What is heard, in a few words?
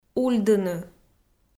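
"ульдыны", Komi, female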